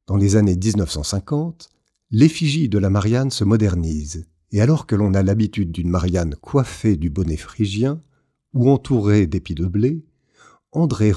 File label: narration podcast; la poste